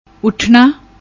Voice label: "Uthana", Punjabi; ਉੱਠਣਾ